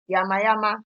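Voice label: "yamayama", Nigerian Pidgin, female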